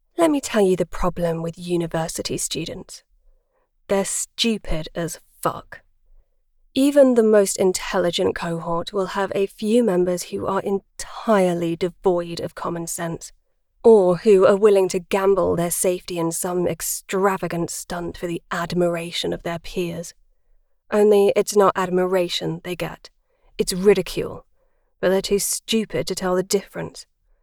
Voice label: urban snarky